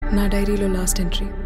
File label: Trailer Dialogue; Awe Trailer Regina